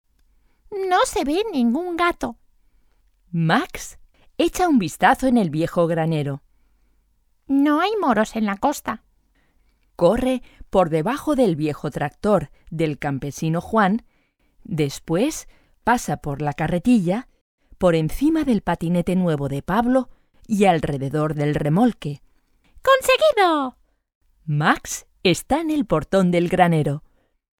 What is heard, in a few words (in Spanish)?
es audiolibro